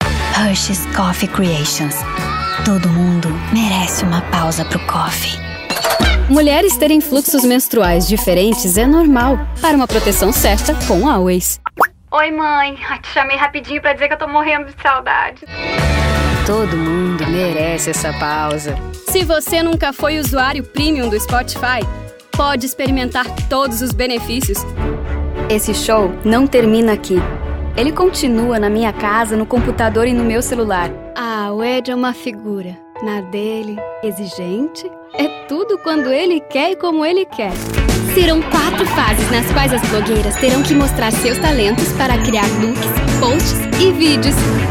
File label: portuguese brazilian commercial